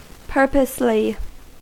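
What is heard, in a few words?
"purposely", US English; pronunciations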